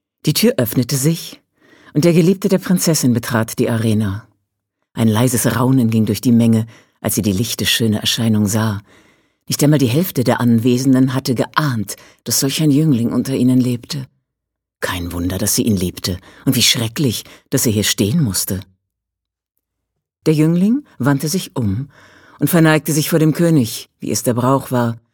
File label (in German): erzaehlung